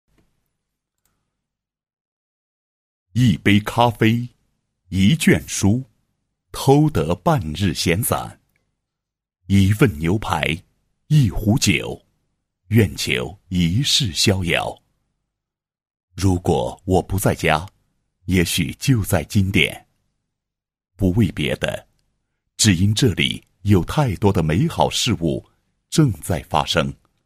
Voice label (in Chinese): 男 栏目包装; 今典故事宣传